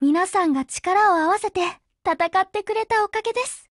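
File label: WIN, Japanese; GF Alarm; CAR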